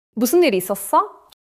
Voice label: neutral, monologue